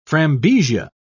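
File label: "frambesia", English, British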